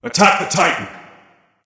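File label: vox attack male; smite; zeus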